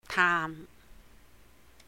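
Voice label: "taam", Cantonese; to visit; 探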